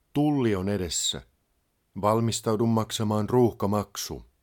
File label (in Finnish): Charge, Finnish male; Opas